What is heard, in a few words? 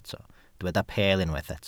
"peel", Welsh